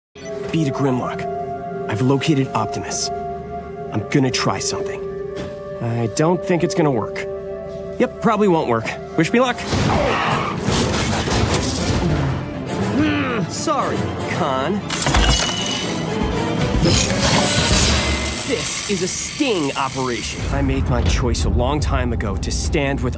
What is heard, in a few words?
animation